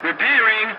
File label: voice message repairing, english; War Thunder